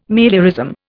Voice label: "meliorism", Indian

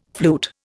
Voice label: "flute", Indian

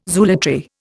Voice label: "zoolatry", Indian

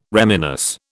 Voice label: "reminisce", British

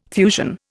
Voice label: "fusion", Indian

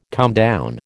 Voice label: "comedown", American

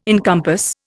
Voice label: "encompass", Indian

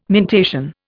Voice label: "mentation", Indian

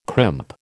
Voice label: "crimp", American

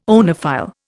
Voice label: "oenophile", Indian

